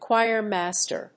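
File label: "choir master", English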